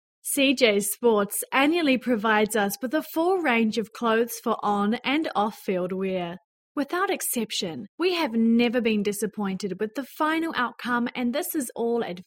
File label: Testimonial; Eagles Swimming Club